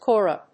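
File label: "Kora", English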